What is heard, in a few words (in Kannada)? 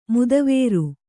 "ಮದವಾರಿ", Kannada